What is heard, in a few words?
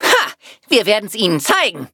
battle, de